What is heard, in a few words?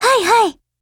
AGREE JP; SPAS12